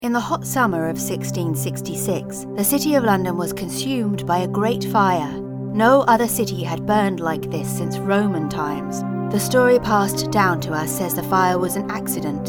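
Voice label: narration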